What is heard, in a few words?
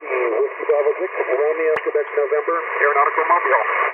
Aeronautical Mobile